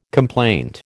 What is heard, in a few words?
"complaint", American